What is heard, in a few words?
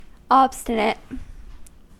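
"obstinate", US English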